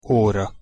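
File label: "ora", Hungarian pronunciation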